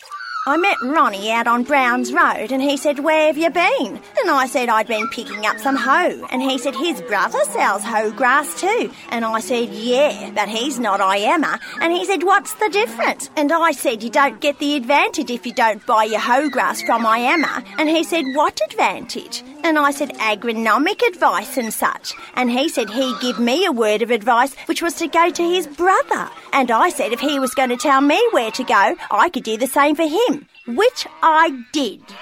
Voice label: old lady character